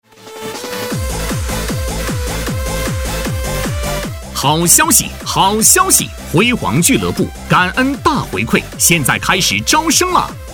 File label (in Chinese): 男 激情促销; 辉煌俱乐部